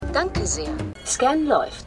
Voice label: Computerstimme; Ethicure; The Good Doctor

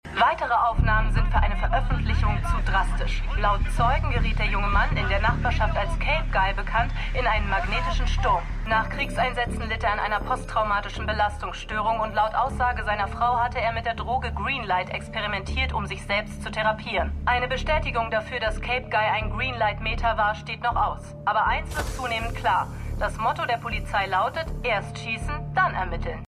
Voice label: TV Nachrichtensprecherin; Stimmproben; Black Lightning

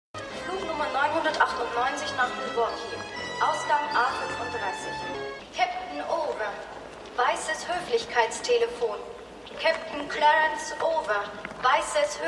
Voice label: Flughafendurchsage; Stimmproben; AirPlane

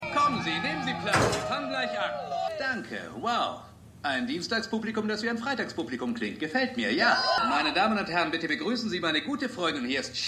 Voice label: Moderator; Stimmproben; Fargo

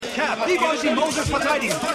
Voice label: Sportreporter; Winning Time

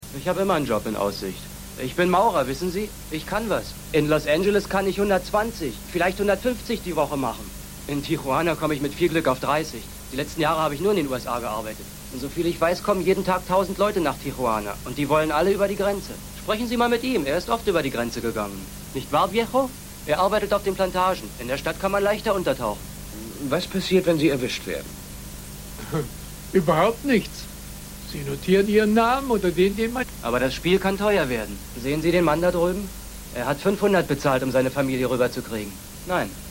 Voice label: Jugendlicher

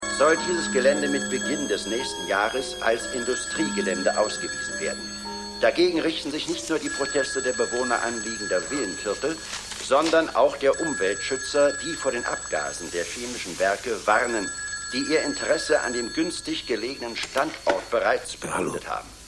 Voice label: TV Sprecher

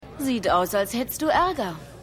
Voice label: Barfrau; X Factor

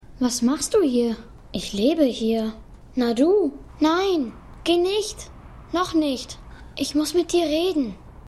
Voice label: Junge; Revolution

